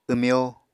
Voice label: "wmyó", Apatani